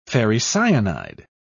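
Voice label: "ferricyanide", English, British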